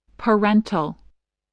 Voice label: "parental", English, voice